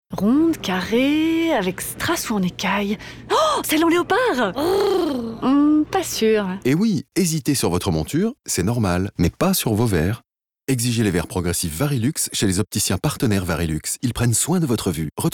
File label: spot radio